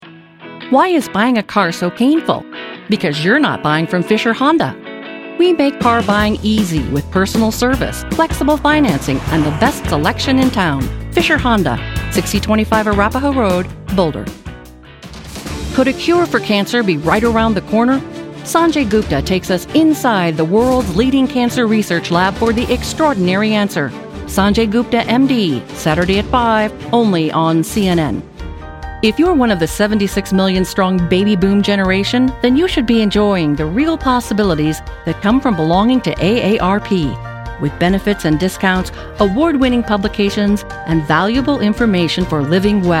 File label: Voiceover Commercial